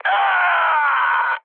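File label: Korean damaged pilot mood high; War Thunder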